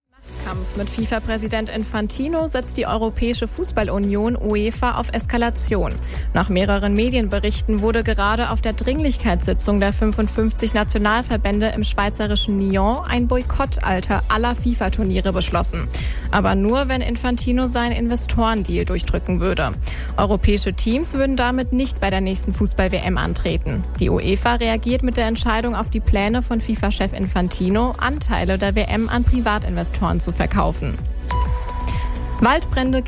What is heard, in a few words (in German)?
radionachrichten